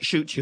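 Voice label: "shoot you", Standard Southern British English; suʧu; suit you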